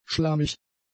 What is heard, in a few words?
"schlammig", German; adjectives